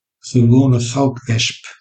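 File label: "gewone goudwesp", Dutch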